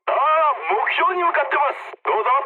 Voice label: jp chat, speech jp

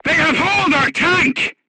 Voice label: voice message tank hit, vietnamese; War Thunder; crew tanks

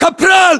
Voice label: rank corporal, ru; localized english

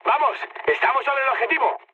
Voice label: near waypoint, mood high, speech; War Thunder

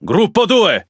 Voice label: Speech Pilots Fighter ID, IT; World Of Warships

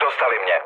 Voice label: aircraft destroyed, speech cze, mood low

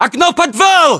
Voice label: location basement window, ru; localized english

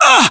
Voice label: pain, voiceovers english

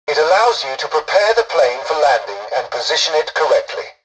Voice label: tutorial dialogue, english; War Thunder

